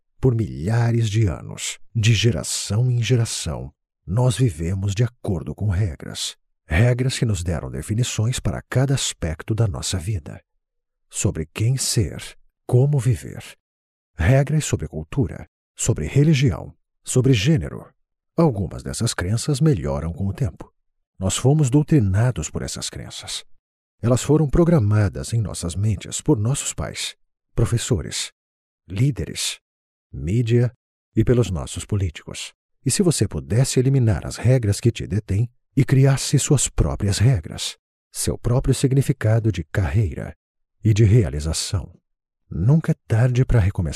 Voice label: low tune narration